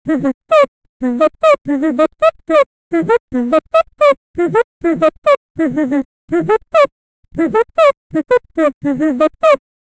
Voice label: a small character express, cartoonish